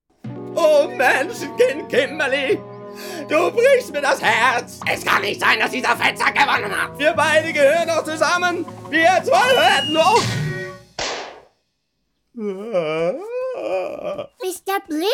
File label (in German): zeichentrick